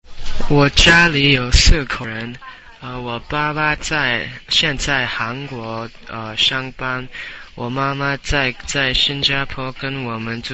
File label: Chinese conversation; Conversation5 SampleB Score 4